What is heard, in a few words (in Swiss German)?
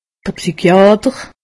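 "psychiater", Alsatian; medecine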